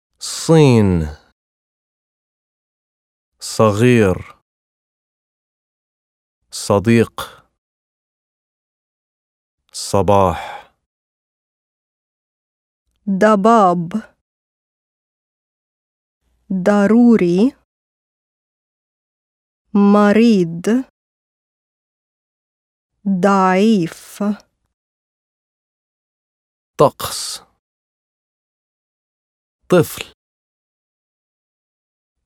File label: Arabic Unfamiliar Consonants